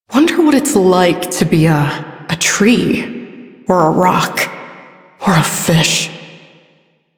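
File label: Female Sedentary; Warframe; Duviri; Duviri Ambient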